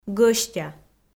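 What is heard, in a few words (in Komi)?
"гӧстя", Komi, female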